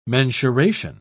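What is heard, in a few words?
"mensuration", British English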